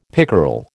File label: "pickerel", American